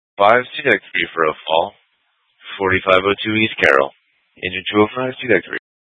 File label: FD Dpts VHF 156.240 Patch